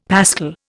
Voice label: "pascal", Indian; Dictionary